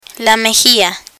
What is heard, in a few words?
"mejilla", Spanish